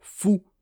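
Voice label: "fou", French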